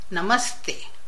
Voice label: Hindi hello